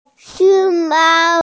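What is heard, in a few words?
"xióng māo", Mandarin Chinese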